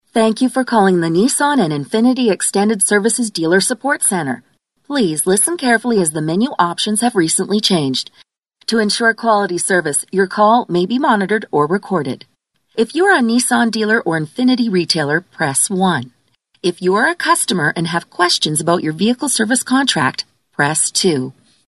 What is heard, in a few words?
Voice Prompt